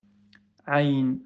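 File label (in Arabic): "ع", Arabic; quiz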